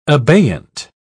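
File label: "abeyant", British English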